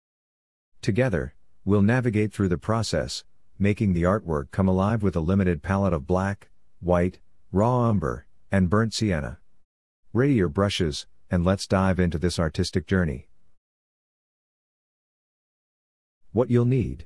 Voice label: MISTY MORNING VOICE OVER